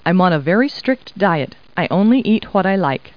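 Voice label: woman; Talking Clip Words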